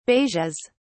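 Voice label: "beiges", British English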